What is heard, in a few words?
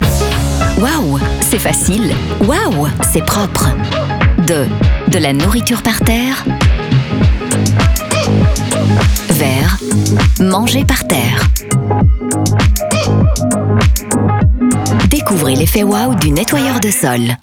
strong neutral; Kärcher